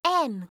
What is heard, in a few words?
"m", alphabet